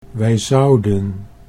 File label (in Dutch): "wij zouden", Dutch